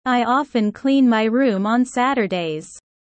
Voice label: low